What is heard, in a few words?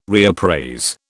"reappraise", British